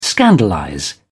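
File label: "scandalize", British English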